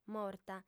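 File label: "morta", female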